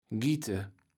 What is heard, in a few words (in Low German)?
"giete", kaevels platt